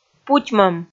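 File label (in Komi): "путьмӧм", Komi, female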